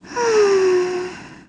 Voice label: Sleepy